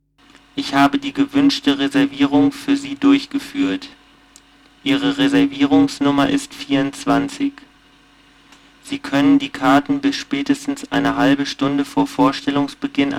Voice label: Sine slow; SmartKom; Prototypen Stimme